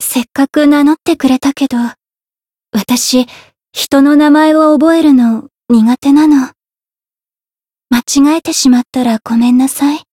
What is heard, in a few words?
story jp; ewella